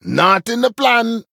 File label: Male Dryad Oops; en